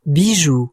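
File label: "bijou", French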